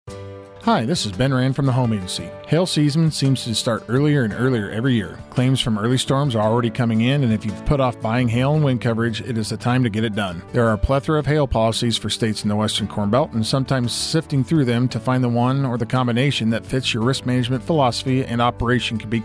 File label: radio ads; WIND AND RAIN JUNE 2025